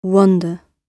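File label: "wonder" spoken, British English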